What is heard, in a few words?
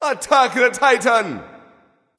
vox attack m; smite; khepri